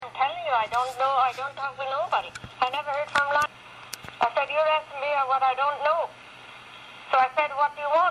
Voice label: talking mike worried over something going to court police